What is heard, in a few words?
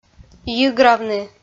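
"йигравны", Komi, female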